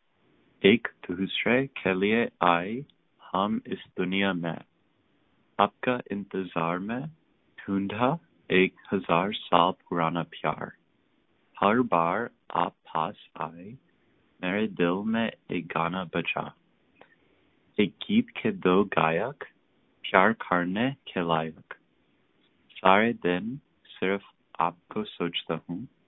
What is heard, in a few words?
Poem, Hindi